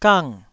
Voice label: "gang4", Taiwanese